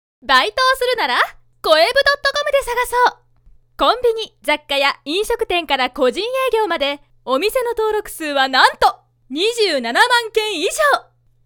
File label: narration